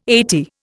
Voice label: "eighty", Indian

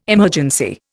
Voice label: "emergency", Indian

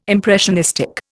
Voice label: "impressionistic", Indian

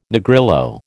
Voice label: "Negrillo", American

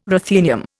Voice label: "ruthenium", Indian

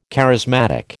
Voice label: "charismatic", American

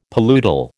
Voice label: "paludal", American

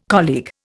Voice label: "colleague", Indian

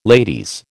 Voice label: "ladies", American

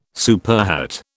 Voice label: "superhet", British

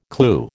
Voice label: "clew", American

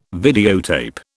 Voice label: "videotape", British

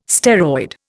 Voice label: "steroid", Indian

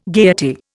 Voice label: "gaiety", Indian

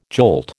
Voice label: "jolt", American

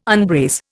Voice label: "unbrace", Indian

